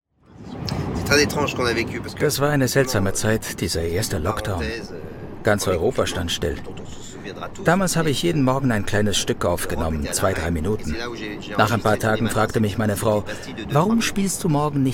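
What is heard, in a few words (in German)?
dokumentation; arte; renaud capucon; violine ohne grenze; Muttersprache Deutsch, Deutsch/Schweizerdeutsch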